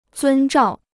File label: "遵照", Chinese